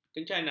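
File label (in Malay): "kencana", Malay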